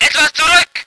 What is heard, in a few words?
voice ger vehicle